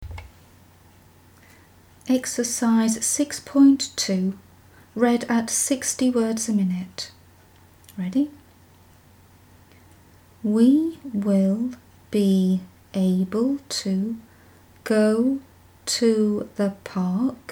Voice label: 60wpm; Teeline